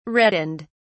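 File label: "reddened", English, British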